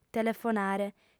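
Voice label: "telefonare", female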